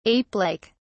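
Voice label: "apelike", British English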